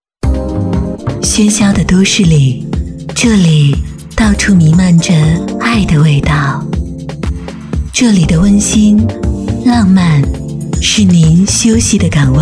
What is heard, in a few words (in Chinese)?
广告 品牌形象 酒店 时尚, 女国; 艾尚酒店